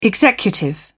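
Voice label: "executive", British English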